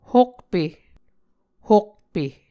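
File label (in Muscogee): "hokpe", Muscogee word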